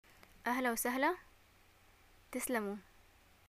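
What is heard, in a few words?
greeting hi and response, Yemeni Arabic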